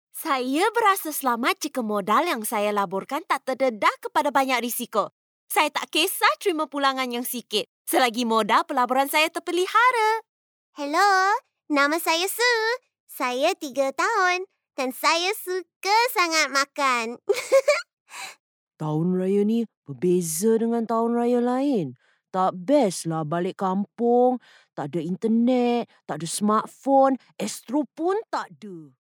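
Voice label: Malay, Malaysia, female; voice over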